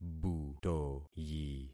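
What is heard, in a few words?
"butoyi", concatenated syllables; Affixing experiment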